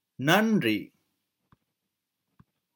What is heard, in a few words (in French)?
lve tamoul merci traduction tamoul